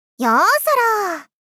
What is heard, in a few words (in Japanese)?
よ～そろ～！！ 少女×中音域